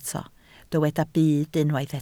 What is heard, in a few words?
"byd", Welsh